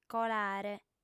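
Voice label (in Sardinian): "colare", female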